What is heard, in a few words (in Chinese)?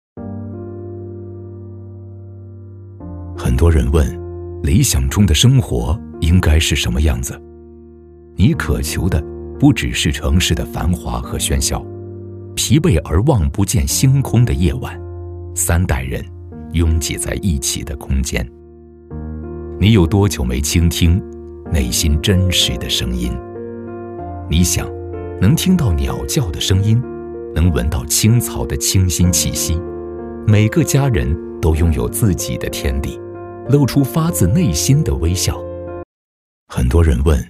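男【旁白宣传】; 华茂半岛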